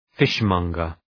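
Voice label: "fishmonger", English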